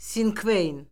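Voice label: "sinkveyn", Azerbaijani; Orfoqrafiya Lüğəti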